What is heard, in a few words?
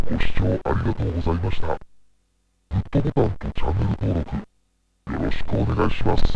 low, voice Changer